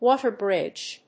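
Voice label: "water bridge", English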